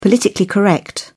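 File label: "politically correct", British English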